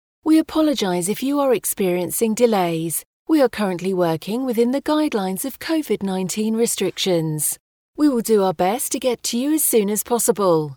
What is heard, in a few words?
IVR and On Hold